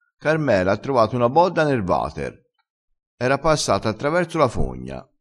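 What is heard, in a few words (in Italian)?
"sduttissi", dialettale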